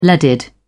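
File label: "leaded", English, British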